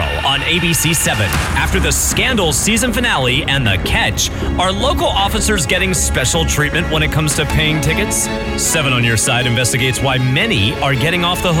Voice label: news reel